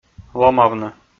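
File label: "ломавны", Komi, male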